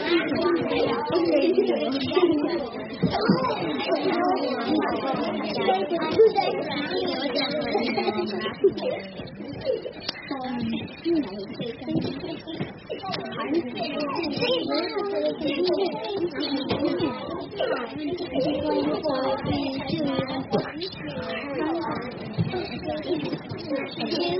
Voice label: Nursery Kids Talking Indoors